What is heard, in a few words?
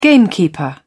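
"gamekeeper", English, British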